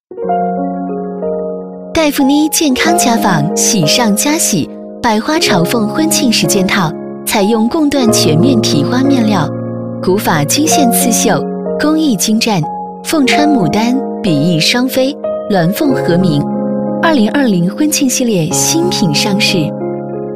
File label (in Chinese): 广告 家居家电 温柔; 黛富妮